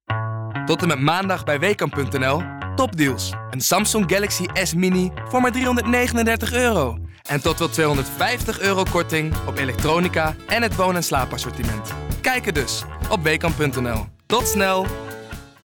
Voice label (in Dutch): Commercieel, voice-over; Wehkamp